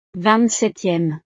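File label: "ving septieme", French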